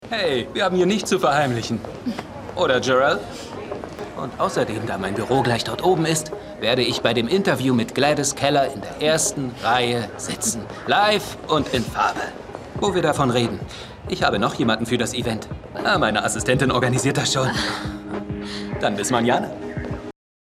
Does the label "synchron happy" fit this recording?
Yes